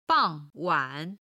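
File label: "bangwan", Chinese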